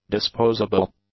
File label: "disposable", English; adjectives